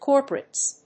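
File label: "corporates", English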